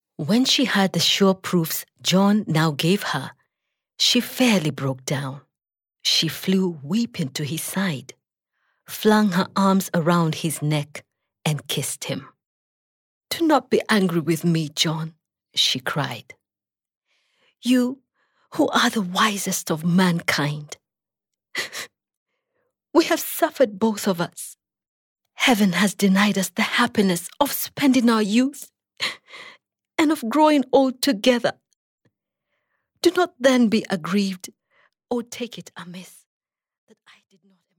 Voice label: female swahili voice over english

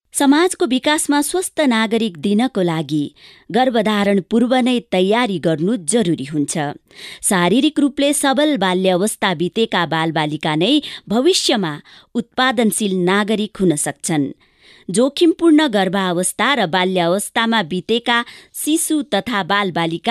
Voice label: female nepali voice over narrative

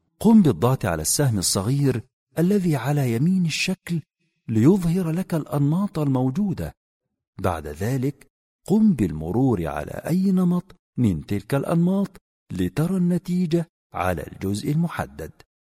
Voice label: male arabic voice over sales

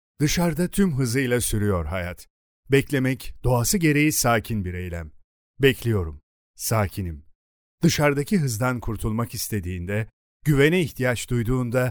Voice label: male turkish voice over talent sales

